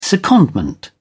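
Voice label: "secondment", British English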